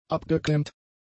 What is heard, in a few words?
"abgeklemmt", German; adjectives